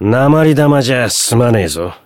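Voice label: Voice Japanese; hardy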